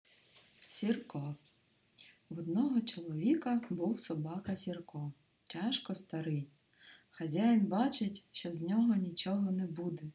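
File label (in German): Märchenzelt ukrainisch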